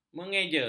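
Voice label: "mengeja", Malay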